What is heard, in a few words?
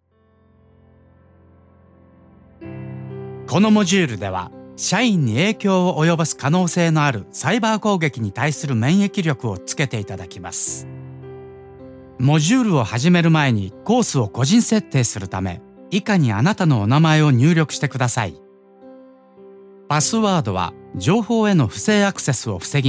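Japanese, E-Learning; GoLocalise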